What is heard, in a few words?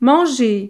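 "manger", French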